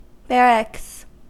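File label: "barracks", US English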